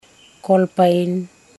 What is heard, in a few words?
elicit female cousin, matukar